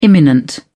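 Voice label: "imminent", British English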